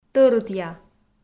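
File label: "тӧрытъя", Komi, female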